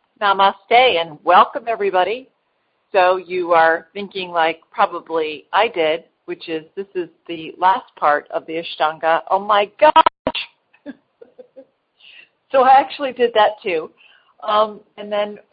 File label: Seated Postures Pronunciation